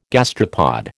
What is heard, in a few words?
"gastropod", American